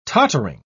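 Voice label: "tottering", English, British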